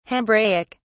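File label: "hebraic", British English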